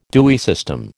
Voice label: "Dewey system", American